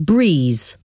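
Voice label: "breeze", English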